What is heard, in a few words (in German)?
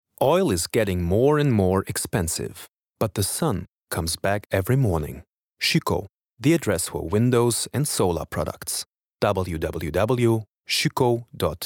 englisch werbung nicht nativ; schueko